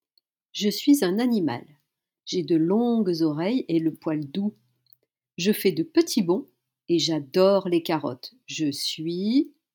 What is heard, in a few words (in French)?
son devinette lapin